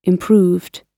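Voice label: "improved", British English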